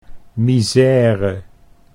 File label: "misere", Dutch